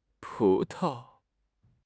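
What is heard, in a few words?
"pu2 tao5", Mandarin Chinese; HSK4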